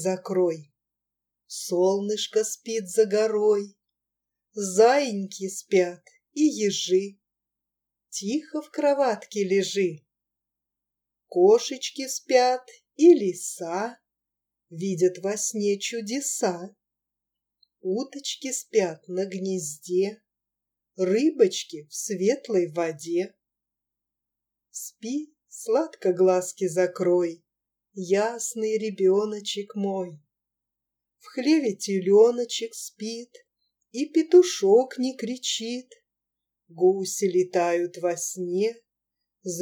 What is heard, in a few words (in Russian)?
колыбельная